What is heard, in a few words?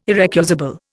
"irrecusable", Indian